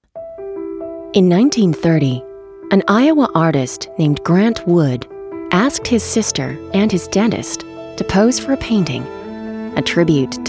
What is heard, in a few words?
narr us